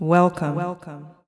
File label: "welcome" echo; zingaro song generator